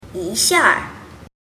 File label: "yixiaer", mandarin